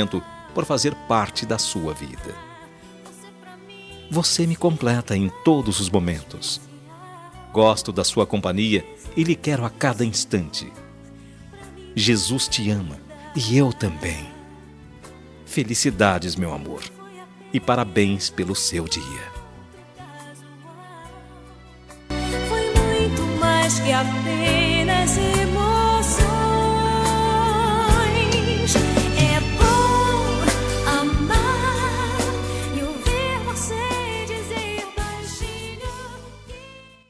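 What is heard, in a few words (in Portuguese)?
Aniversário Romântico Evangélico Masculino, telemensagem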